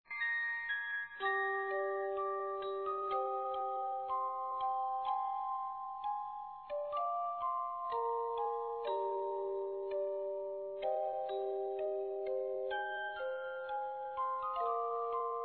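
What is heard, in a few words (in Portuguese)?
Natal neutro feminino, Telemensagens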